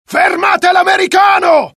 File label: alert, dialogue IT; mohaa; attack